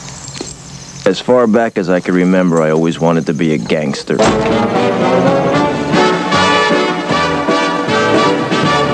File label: gangster